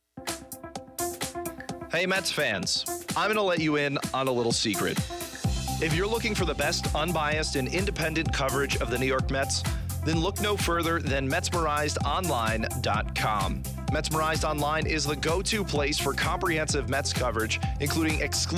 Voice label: Radio Spot